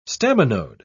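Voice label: "staminode", British English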